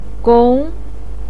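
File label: "gong", Mandarin Chinese, second tone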